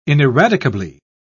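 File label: "ineradicably", British English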